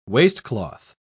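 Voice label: "waistcloth", British English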